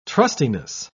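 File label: "trustiness", British English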